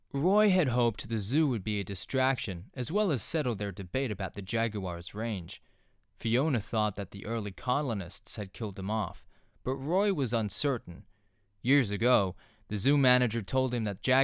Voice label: US Audiobook; The Jaguar